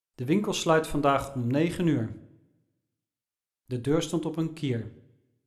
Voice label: male Dutch Room; Speech Quality Examples